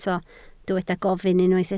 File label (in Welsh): "gofyn", Welsh